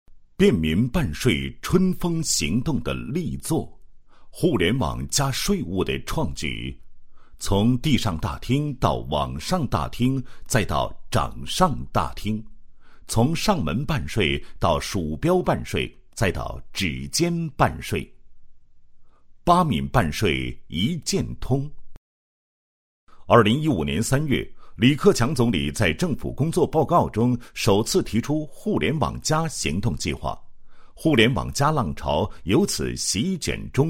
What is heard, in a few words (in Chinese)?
男 解说, 配音; 掌上移动办税的新时代的到来